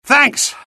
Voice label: alert, UK; mohaa; dialogue